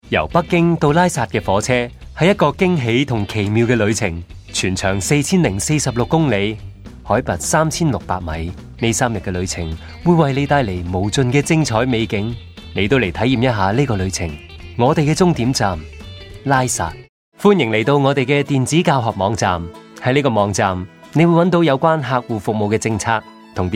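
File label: Cantonese, narration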